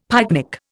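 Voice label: "pyknic", Indian English; Dictionary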